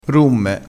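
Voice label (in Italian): "rumme", dialetto crespinese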